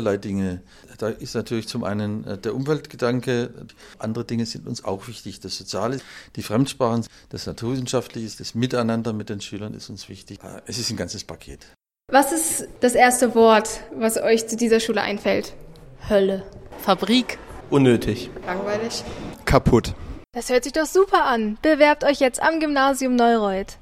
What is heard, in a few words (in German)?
Werbung; Gymnasium Neureut